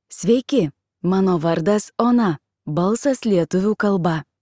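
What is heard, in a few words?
Lithuanian, Lithuania; iOS Sounds; ona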